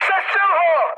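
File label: attack position, Czech, mood high; War Thunder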